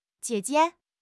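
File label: "jie3 jie5", Mandarin Chinese; HSK2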